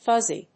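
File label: "fuzzy", English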